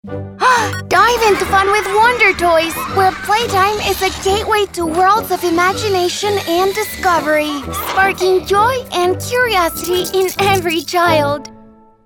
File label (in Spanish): SPA ENG Sample Inocente Dulce